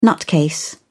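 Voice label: "nutcase", English, British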